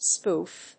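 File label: "spoof", English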